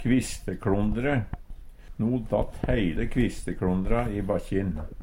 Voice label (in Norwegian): kvisteklondre, Numedalsmål; No datt heile kvistekLondra i bakkjin.